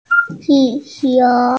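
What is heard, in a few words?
"balloon", Mandarin Chinese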